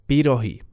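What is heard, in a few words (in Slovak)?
"pirohy", Slovak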